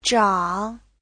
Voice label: "zhang3", Chinese